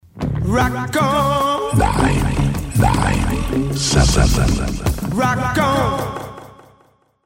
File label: Rock On, Jingles; Xanadu 997 Jingles